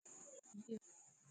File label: voice message